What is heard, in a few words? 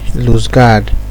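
"lose guard", Nigerian Pidgin, male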